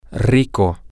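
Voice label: rich, Spanish, male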